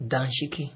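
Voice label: "danshiki", Nigerian Pidgin, male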